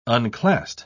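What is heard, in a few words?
"unclassed", British English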